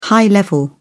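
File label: "high-level", English, British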